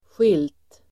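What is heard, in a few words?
"skilt", Swedish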